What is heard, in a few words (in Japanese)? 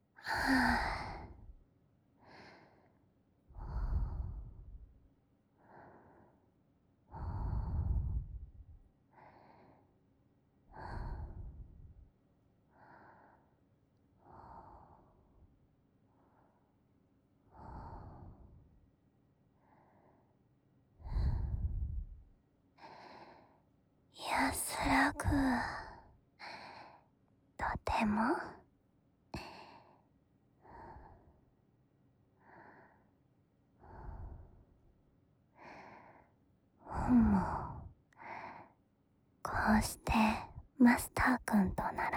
推理と囁きによる安眠導入からの入眠; ASMR of Rail Works